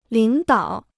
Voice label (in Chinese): "领导", Chinese